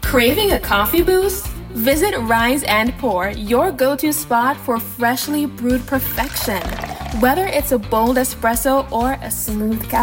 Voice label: Coffee Shop Ad